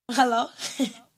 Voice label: baddie hello

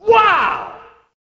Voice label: wow announcer

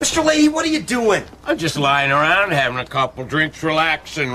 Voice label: relaxing; lahey

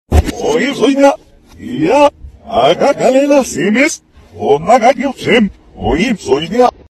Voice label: caca reversed

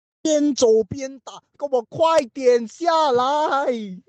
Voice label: angry

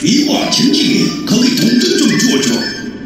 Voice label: korean; mr krabs

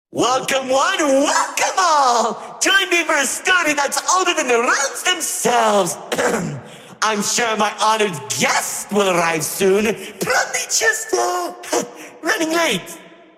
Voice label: narrator

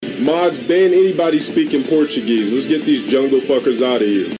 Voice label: ban portuguese

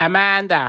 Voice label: cockney

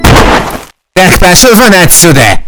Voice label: teacher speak

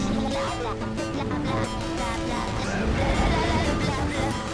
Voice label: blablabla cyber

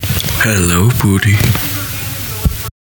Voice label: yes deep

